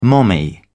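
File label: "mommy", British English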